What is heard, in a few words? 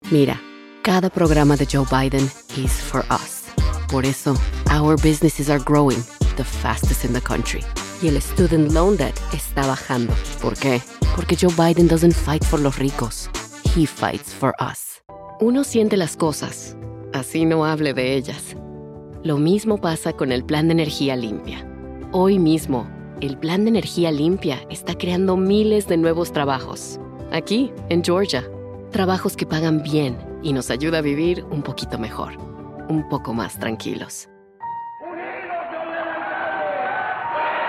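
Political Democratic, Spanish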